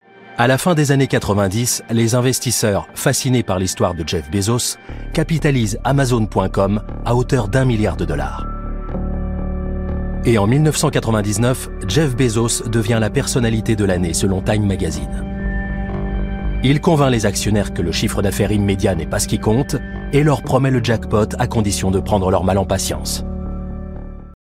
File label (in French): commentaire docu; voix-off; L'irresistible ascension d'Amazon; Arte